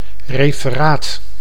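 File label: "referaat", Dutch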